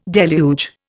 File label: "deluge", Indian